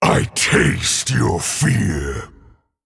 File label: Male Dragon Emote Greetings